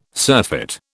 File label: "surfeit", British